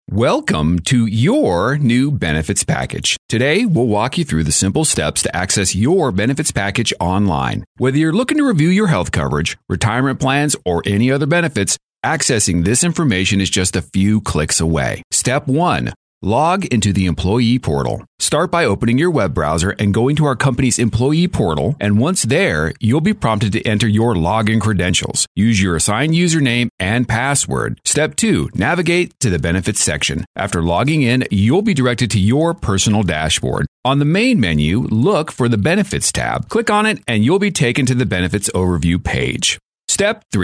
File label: Corporate Narration